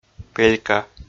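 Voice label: "пелька", Komi, male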